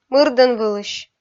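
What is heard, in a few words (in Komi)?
"мырдӧнвылысь", Komi, female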